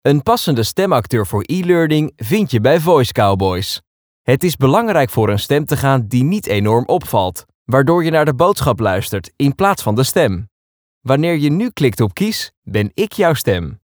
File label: ELEARNING